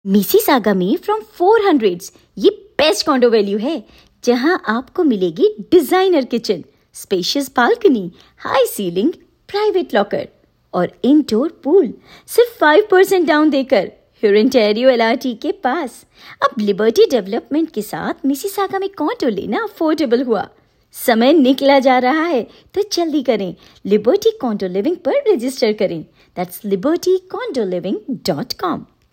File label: Radio Commercial Hinglish; Liberty